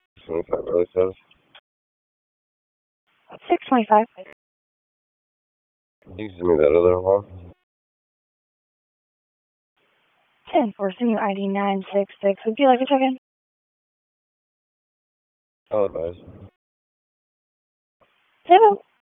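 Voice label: Police Dispatch; Raleigh; Southwest District; wake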